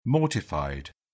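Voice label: "mortified", British English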